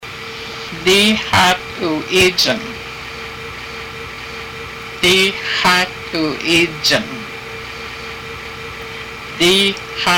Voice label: my father is said by female, haida; díi xaad uu íijang

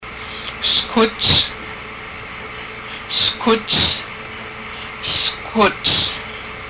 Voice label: bones, haida

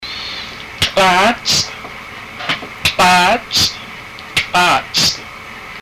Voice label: top of head, haida language; Tl'aats

